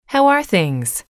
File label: how are things, English